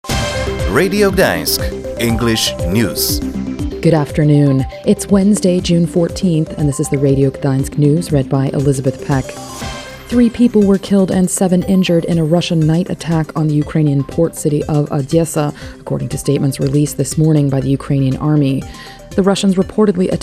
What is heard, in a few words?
English News; Russia continues nightly attacks on Ukrainian cities; Polish charities help flood victims in southern Ukraine; Launch of “Fala” transit payment system delayed yet again